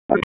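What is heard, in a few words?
Raleigh Police Southwest District Tactical, p25; wake p25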